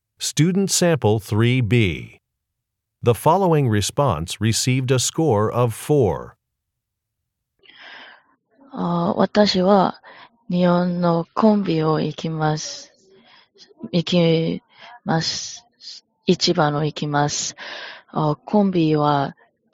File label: Japanese conversation